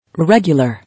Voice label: "Regular", English

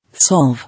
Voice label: "Solve", English

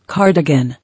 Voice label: "Cardigan", English

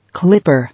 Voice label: "Clipper", English

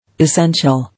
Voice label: "Essential", English

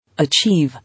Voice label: "Achieve" English

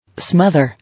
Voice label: "Smother", English

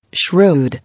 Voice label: "Shrewd", English

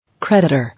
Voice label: "Creditor" English

